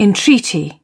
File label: "entreaty", British